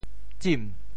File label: "tsim2"